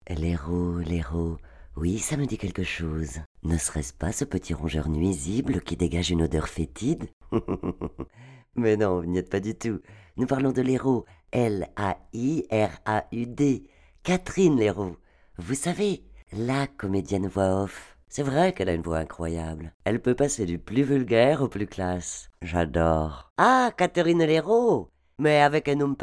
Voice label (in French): Microtrottoir